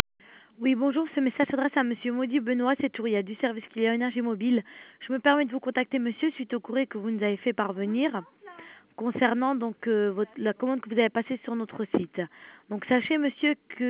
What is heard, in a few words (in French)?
répondeur